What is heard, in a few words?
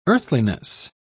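"earthliness", British English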